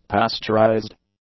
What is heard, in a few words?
"pasteurized", English; adjectives